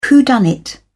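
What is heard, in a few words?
"whodunnit", British English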